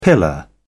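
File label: "pillar", British English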